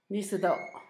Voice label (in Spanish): mar, Zapoteco, Asunción Ocotlan; Sustantivos; INALI